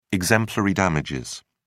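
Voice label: "exemplary damages", British English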